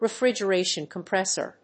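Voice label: "refrigeration compressor", English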